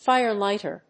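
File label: "fire lighter", English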